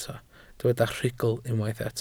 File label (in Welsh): "rhugl", Welsh